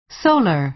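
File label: "solar", American English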